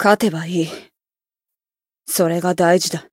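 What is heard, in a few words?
Voice win, Japanese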